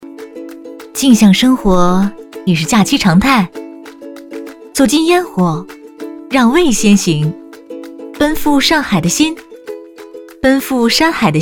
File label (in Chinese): 女 清新 少女音 文艺片